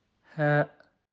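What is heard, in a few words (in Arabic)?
"ه", Arabic; quiz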